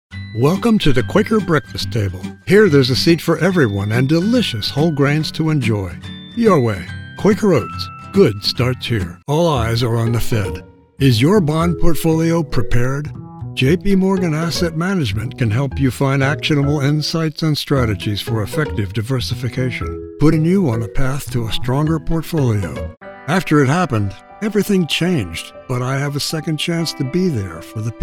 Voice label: Commercial